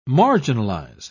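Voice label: "marginalise", English, British English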